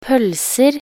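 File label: "pølser", Norwegian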